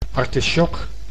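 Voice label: "artisjok", Dutch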